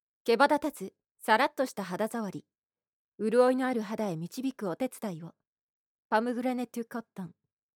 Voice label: narration